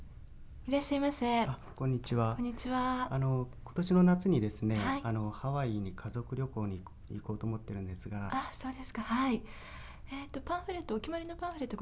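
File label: female; RWCP SP96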